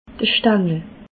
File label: "stangel", alsace